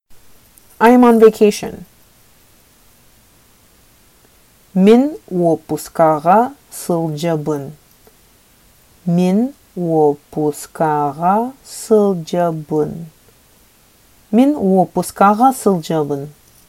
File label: Sakha I am on vacation